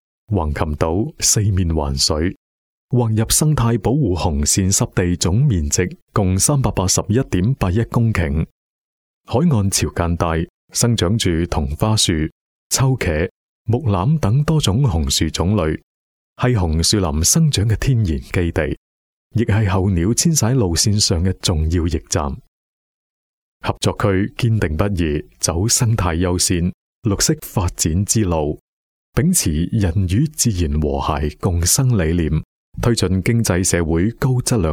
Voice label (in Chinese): 男 粤语; 横琴生态